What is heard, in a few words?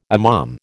"imam", American